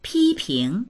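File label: "pi1ping2", Mandarin Chinese; 批评; 批判する、叱る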